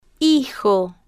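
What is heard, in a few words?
"hijo", Spanish, slow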